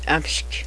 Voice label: "lard oil", Cheyenne language